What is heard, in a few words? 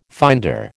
"finder", American